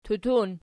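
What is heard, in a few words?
"tutun" nominative, Archi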